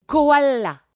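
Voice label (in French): "koala", French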